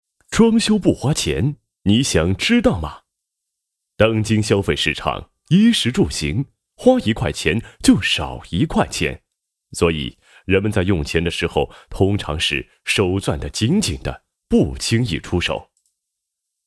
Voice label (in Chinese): 男, 品牌宣传 装饰宣传配音; 宏胜